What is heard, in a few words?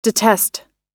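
"detest", US English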